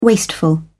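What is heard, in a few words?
"wasteful", British English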